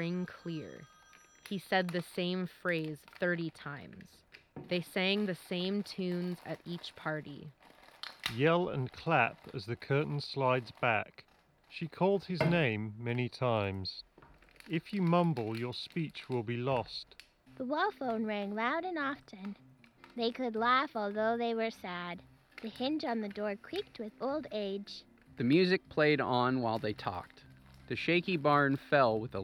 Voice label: Office microphone sample; Thinksound ov21